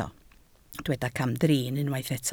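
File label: "camdrin" Welsh, spoken word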